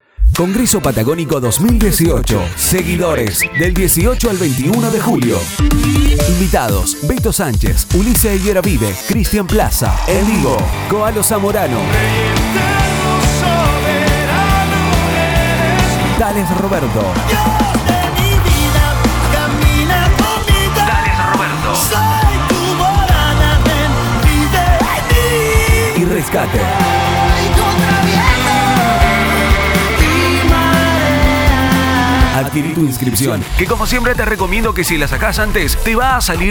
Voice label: Promo; Congreso Patagónico 2018

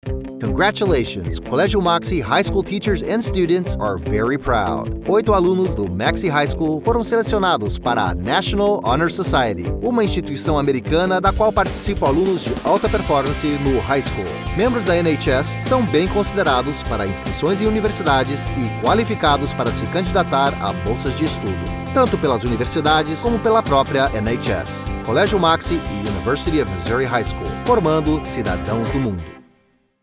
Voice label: Eng Port Mixed

